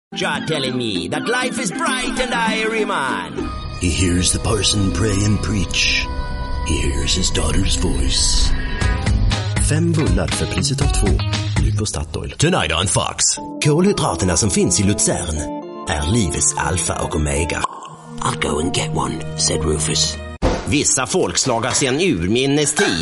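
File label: Swedish male Showreel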